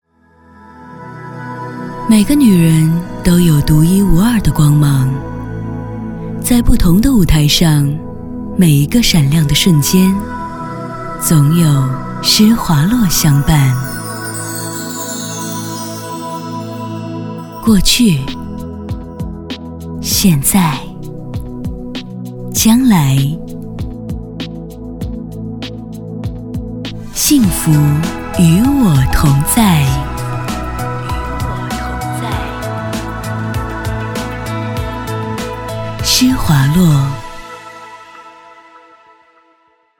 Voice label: 女 广告 品牌形象 知性; 诗华诺

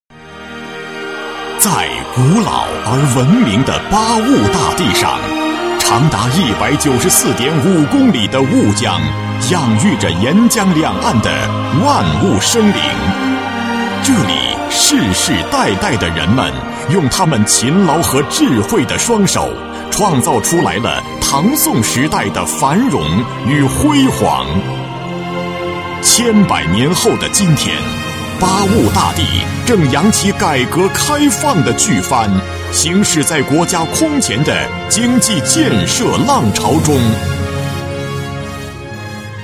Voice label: 男国 专题 企业 成熟; 中铁四局

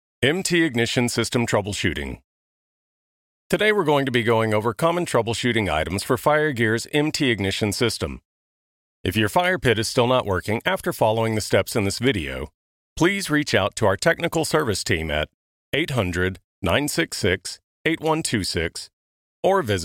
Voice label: 美式英语 讲解干音, 外籍男英; 外语

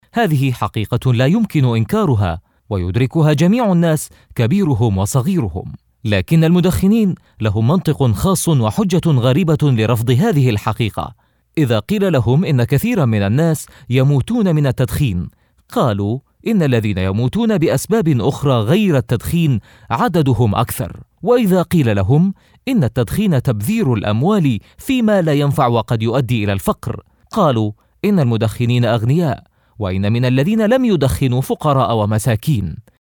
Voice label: 阿拉伯语男 外语 小语种

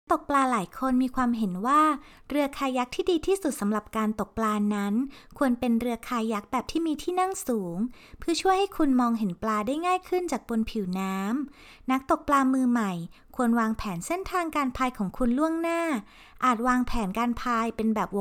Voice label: 泰语女, 外语; 小语种

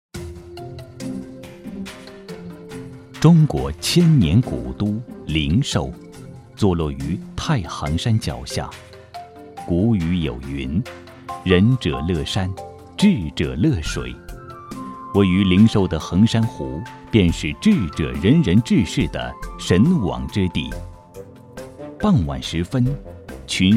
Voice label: 纪录片 舌尖 鱼

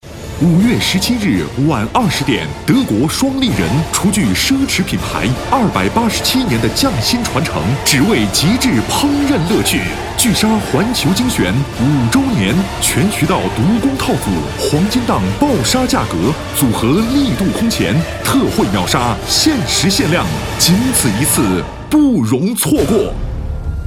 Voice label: 男国 广告 家居家电 激情; 聚鲨厨具

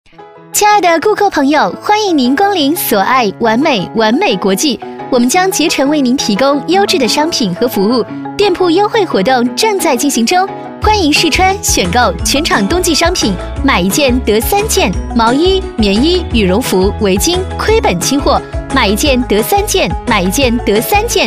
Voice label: 女国 广告 促销 可爱; 玩美国际

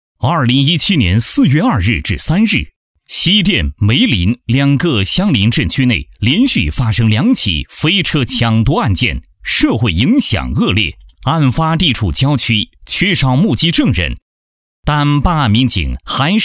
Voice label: 男国 专题 政府 派出所 稳重; 西店派出所

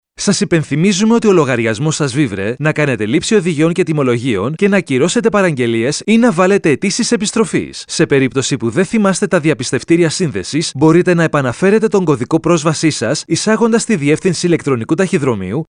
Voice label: 希腊语男 外语 小语种

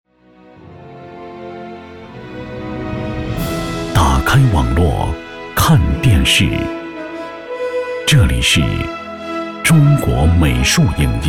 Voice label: 广告, 片头片花, 大气; 中国网络电视

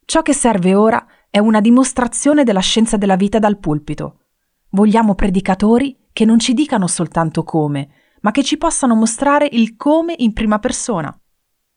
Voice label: 意大利语女 外语 小语种

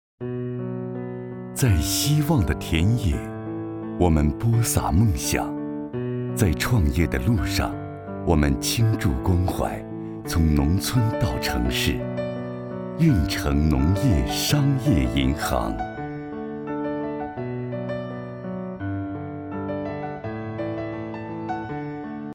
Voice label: 专题 银行 亲切; 郓城商业银行

